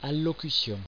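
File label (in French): "allocution" French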